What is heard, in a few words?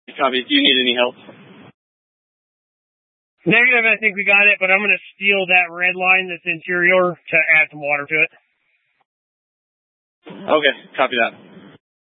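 Incident Tactical 1, Scanner audio recording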